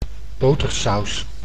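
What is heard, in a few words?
"botersaus", Dutch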